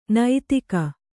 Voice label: "ನೈತಿಕ", kannada